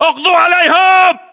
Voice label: order attack infantry, battlechatter, english

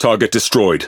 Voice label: Pirate Speech Pilots Bomber Tangodown; World Of Warships

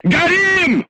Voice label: voice message fire in tank, crew tanks russian

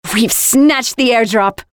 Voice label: Airdrop claimed, Voiceovers; Project Argo

